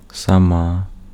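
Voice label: Ukrainian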